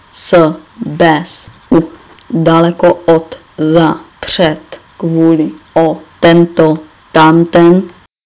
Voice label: czech; words